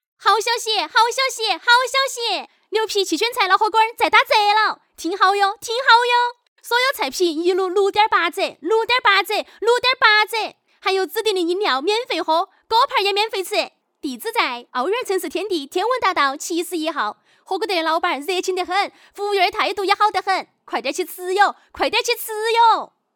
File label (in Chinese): 广告 促销 重庆话火锅广告 可爱; 女